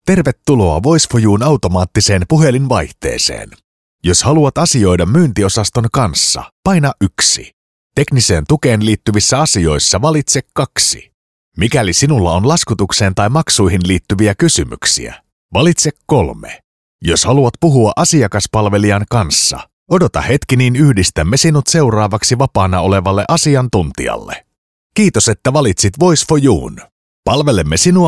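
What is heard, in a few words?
Finnish, IVR; voice demos; GoLocalise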